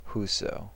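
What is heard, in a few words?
"whoso", American English; pronunciations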